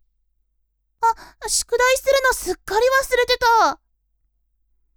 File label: woman